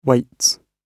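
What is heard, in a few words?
"waits", British English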